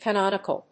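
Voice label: "canonical", English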